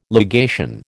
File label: "legation", American